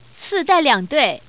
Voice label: Woman